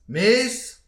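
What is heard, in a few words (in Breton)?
"mezh", Breton; petit precis de prononciation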